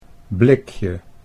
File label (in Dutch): "blikje", Dutch